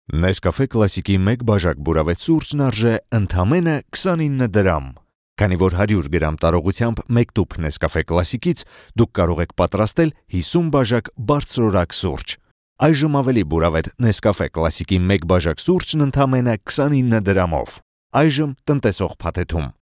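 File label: Armenian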